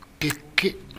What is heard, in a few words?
"bikki", minamiyamagata; 蛙。〈べっき〉とも。